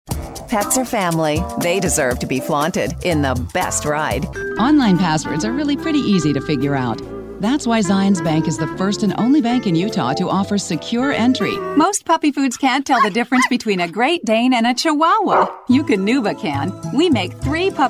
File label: Commercial